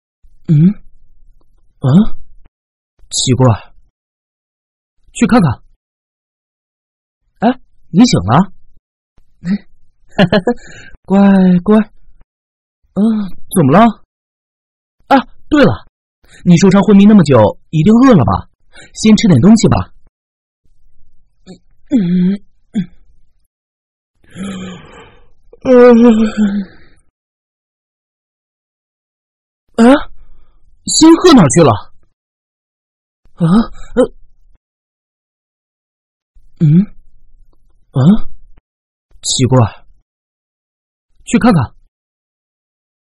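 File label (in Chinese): 国语 男声 角色 游戏角色 年轻自然说话 素人; 邦溪故事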